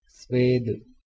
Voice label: "sveeda" Hindi